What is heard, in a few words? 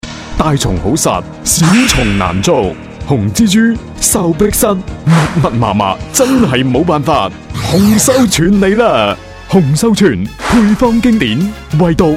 boy yueyu, Chinese; fangyan